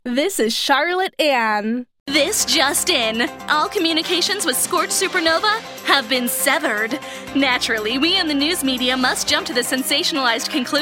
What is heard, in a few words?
voiceover, character, women